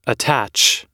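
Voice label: "attach", English; oxford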